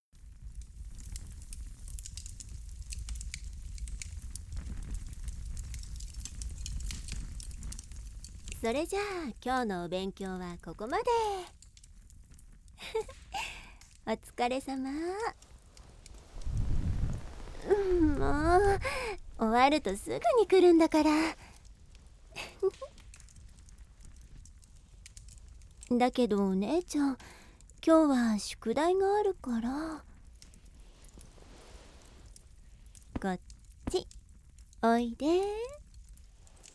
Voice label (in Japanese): お姉ちゃんのしっぽまくら～お昼寝用ASMR～